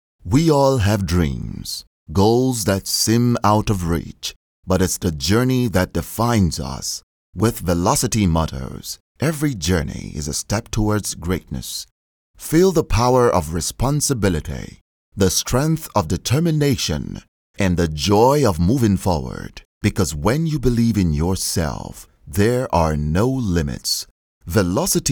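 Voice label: African English, male, commercial, Nigeria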